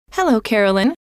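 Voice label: "hello", female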